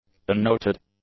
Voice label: "unnoted", English; adjectives

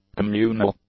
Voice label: "communal", English; adjectives

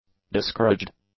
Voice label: "discouraged", English; adjectives

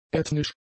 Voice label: "ethnisch", German; adjectives

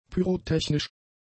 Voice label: "pyrotechnisch", German; adjectives

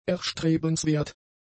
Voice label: "erstrebenswert", German; adjectives

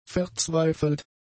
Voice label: "verzweifelt", German; adjectives

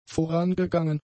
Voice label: "vorangegangen", German; adjectives

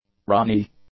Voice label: "runny", English; adjectives

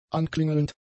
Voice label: "anklingelnd", German; adjectives

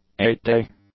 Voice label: "eight-day", English; adjectives

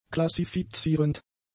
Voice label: "klassifizierend", German; adjectives

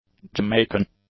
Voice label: "Jamaican", english; adjectives

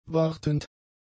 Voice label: "wartend", German; adjectives

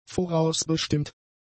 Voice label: "vorausbestimmt", German; adjectives

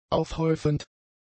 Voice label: "aufhäufend", German; adjectives

